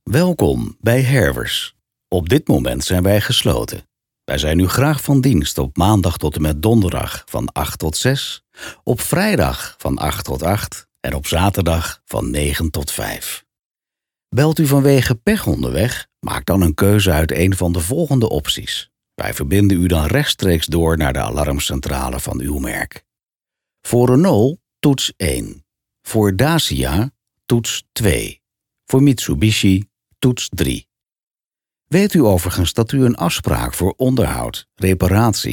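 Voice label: dutch interactive voice response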